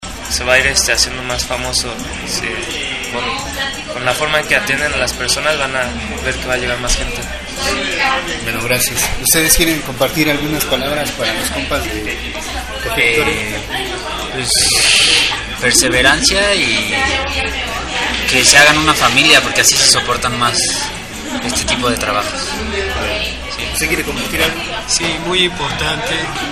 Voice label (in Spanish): voz de comensales; cafe victoria